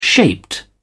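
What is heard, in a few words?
"shaped", British English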